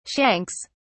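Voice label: "shanks", British English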